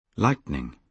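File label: "lightning", British English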